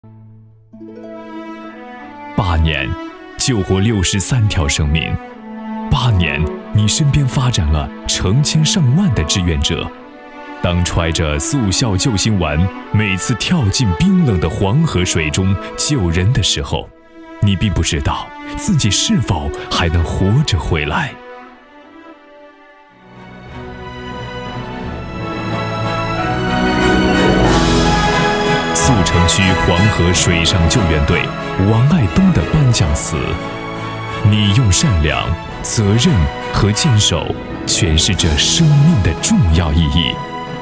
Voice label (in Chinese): 男, 人物, 颁奖